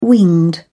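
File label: "winged", British English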